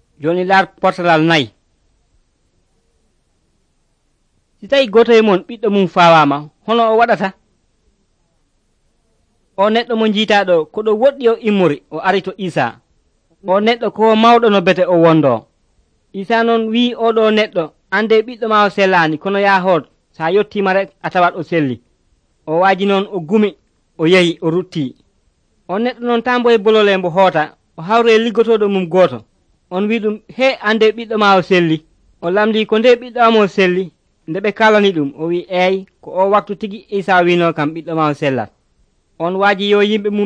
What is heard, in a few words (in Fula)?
pulaar fulacunda, drama